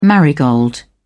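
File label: "marigold", English, British English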